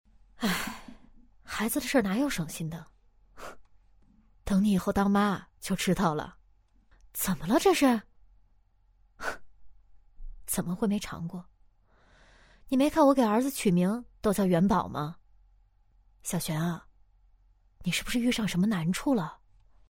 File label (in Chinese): 女 人物角色 中年大妈; 贫穷; 素人自然